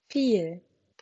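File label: "viel", German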